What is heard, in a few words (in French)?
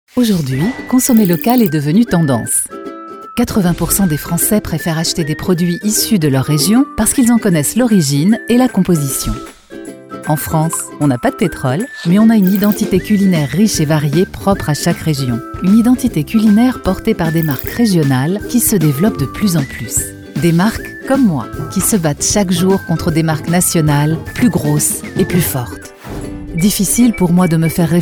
Werbung; Mutualisation des marques; french voice-over talent female